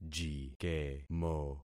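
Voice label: "jikemo", concatenated syllables; Affixing experiment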